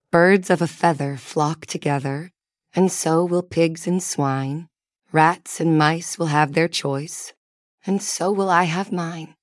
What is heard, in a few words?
fem us; Birds of a Feather